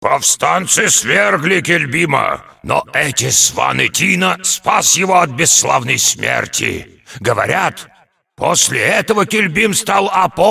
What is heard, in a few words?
cinematic voice, ru; lineage2